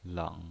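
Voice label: "leng", pinyin, Mandarin Chinese, third tone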